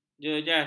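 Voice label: "jejas", Malay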